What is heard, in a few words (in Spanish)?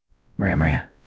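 Voice Acting, es, female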